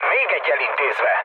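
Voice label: player killed enemy, mood low, Hungarian; War Thunder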